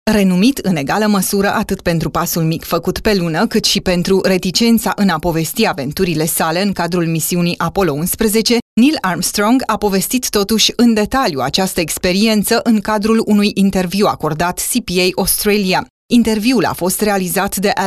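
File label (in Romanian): Romanian female Naratie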